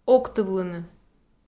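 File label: "октывлыны" Komi-Zyrian, female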